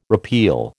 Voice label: "repeal", American